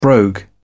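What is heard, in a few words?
"brogue", British English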